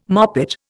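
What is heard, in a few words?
"moppet", Indian English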